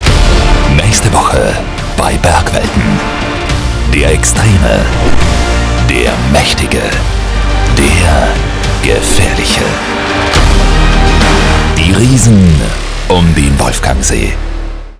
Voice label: Trailer; Bergwelten

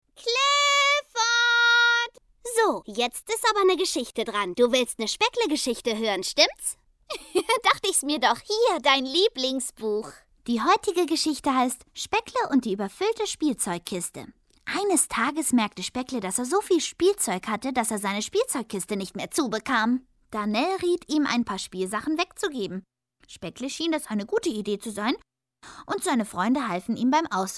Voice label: trickstimme

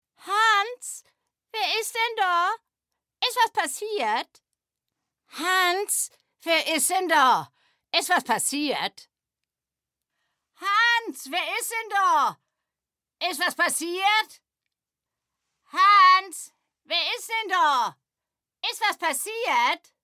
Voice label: sync dialekt

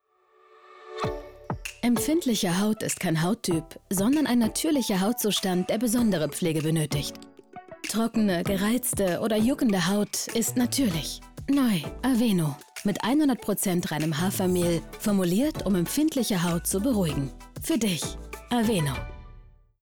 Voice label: Onlinespot; Aveeno

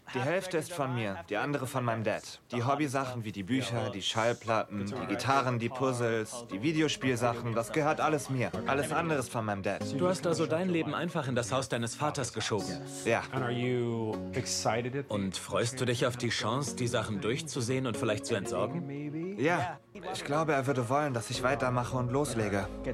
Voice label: Doku, German; Queer Eye (Netflix, Staffel 9) - Chris

